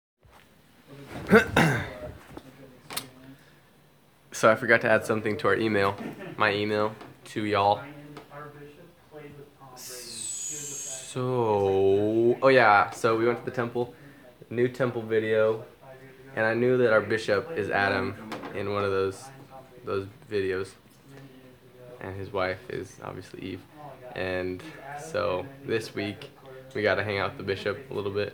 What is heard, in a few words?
memo voice